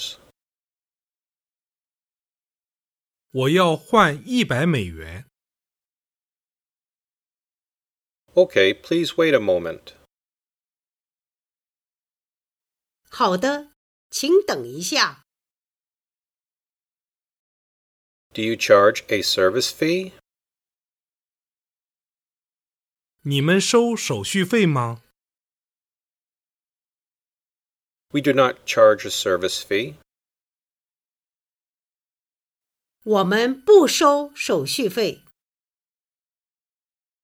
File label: Dialog; Chapter 14: At the Bank